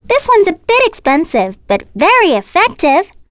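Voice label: Vox Skill, voices heroes English; Requina; kings raid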